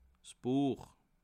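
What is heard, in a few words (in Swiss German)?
"buech", Bas Rhin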